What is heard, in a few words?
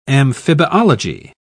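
"amphibiology", English, British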